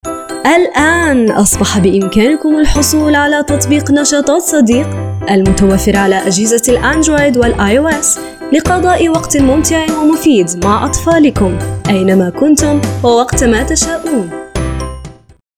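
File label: Arabic, seslendirme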